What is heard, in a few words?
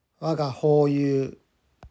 Waga Houyu Pronunciation, Japanese